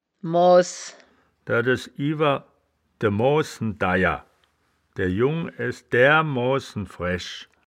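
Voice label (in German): "moahs", Wittlicher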